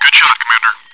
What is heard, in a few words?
good shot commander, radio